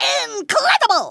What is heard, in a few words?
"amazing", Kamikaze; Worms Armageddon